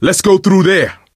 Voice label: misc directional, coach, survivor voice